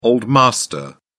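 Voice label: "old master", British English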